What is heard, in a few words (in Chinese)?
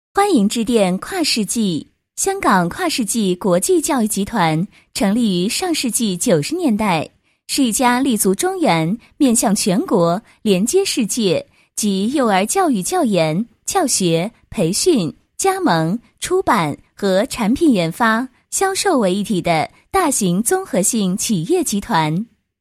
女 彩铃; 欢迎致电跨世纪